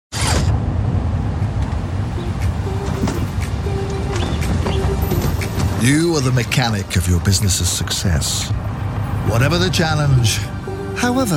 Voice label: Commercial; Xero

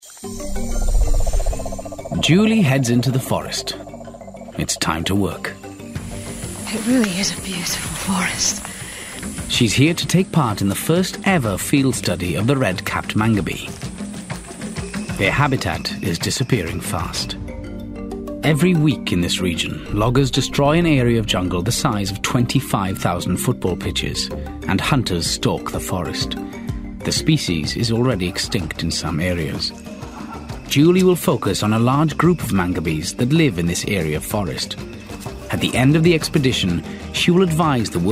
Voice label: narrative

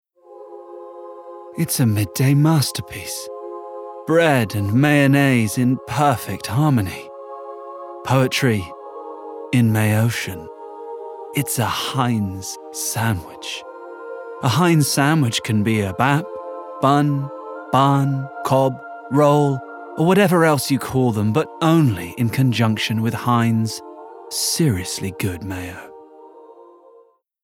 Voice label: Commercial; Heinz

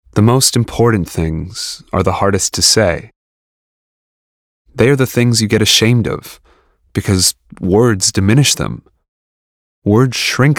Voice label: Audiobook Narration; Different Seasons

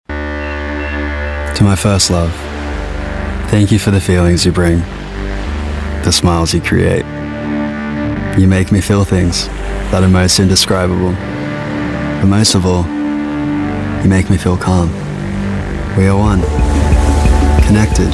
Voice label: Commercial Reel

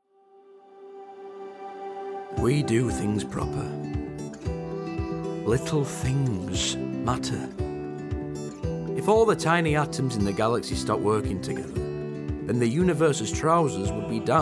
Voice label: Yorkshire ad

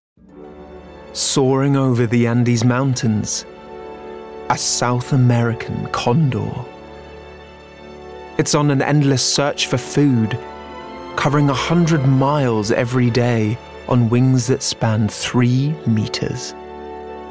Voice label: Documentary; Mountain Life At The Extreme

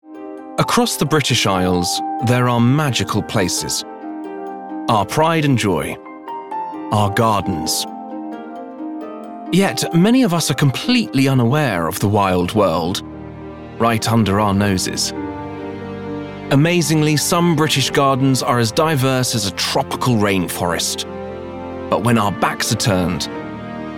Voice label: Documentary; Secret Gardens

